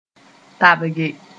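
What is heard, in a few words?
"tabge", Mooré; take in skilful manner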